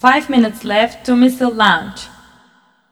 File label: 5min women